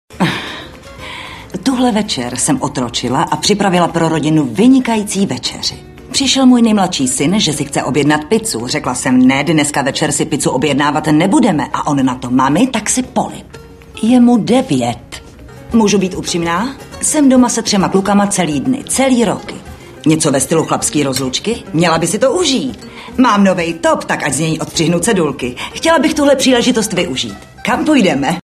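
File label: female Czech drama mix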